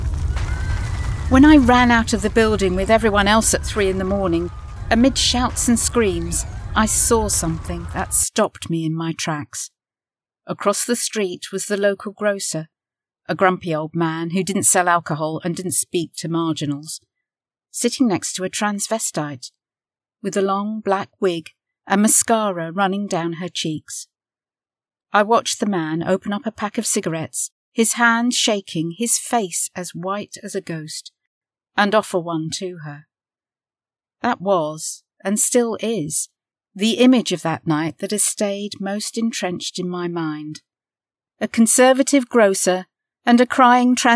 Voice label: narration Sounds Effects